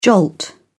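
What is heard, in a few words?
"jolt", British English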